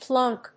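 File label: "plunk", English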